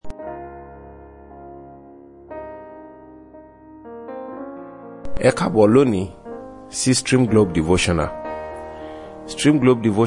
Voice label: Devotional Audio Yoruba